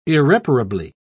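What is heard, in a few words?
"irreparably", British English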